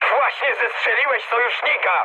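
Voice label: Polish player killed ally mood high; War Thunder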